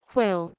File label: "hweul", Proto Germanic